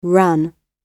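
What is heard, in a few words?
"ran", British English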